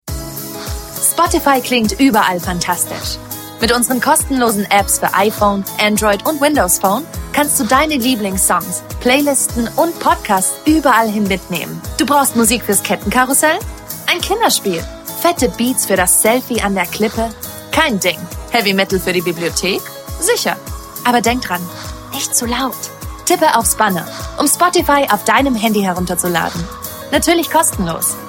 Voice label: germany voice over female narrator